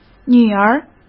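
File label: "女儿", Mandarin Chinese; chinese-flashcards; hsk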